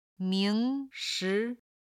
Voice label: "ming2shi2", Chinese